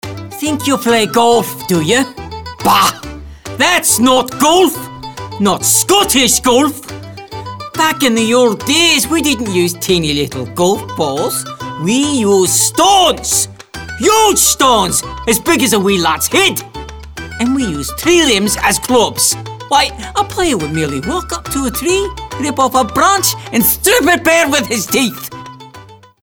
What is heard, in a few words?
Scottish Golf